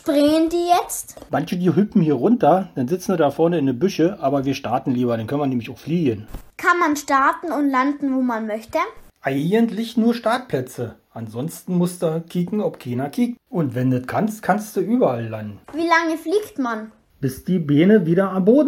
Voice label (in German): FAQ Berlinerisch; Nichtflieger